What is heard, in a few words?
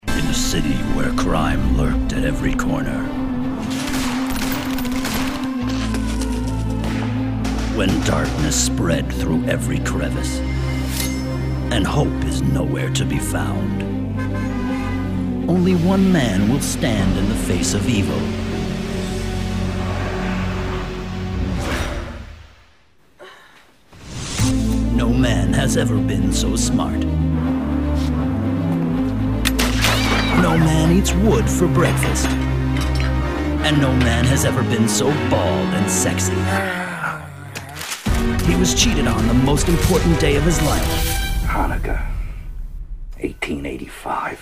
Trailer; Bulls Eye